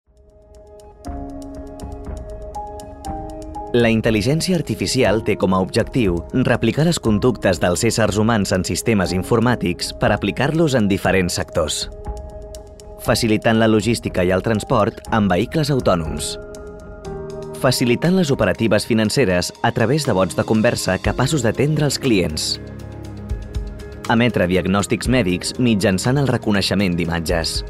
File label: corporate, Catalan, male